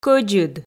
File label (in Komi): "кӧдзыд" Komi